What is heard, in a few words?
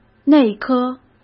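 "内科" Mandarin Chinese; hsk; chinese-flashcards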